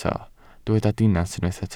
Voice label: "dinas", welsh

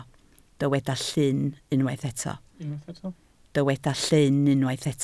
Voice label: "llyn", Welsh

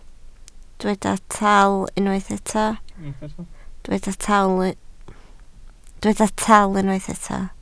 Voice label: "taal", Welsh

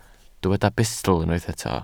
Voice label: "bustl", welsh

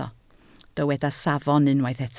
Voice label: "safon", Welsh

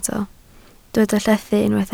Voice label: "llethu", Welsh

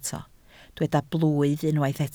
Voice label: "blwydd", Welsh

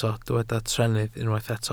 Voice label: "trennydd", Welsh

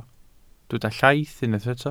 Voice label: "llaith", Welsh

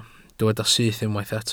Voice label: "syth", Welsh

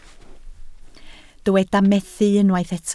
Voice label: "methu", Welsh

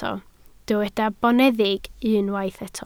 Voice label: "boneddig", Welsh

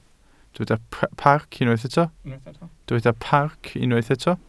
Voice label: "parc", Welsh

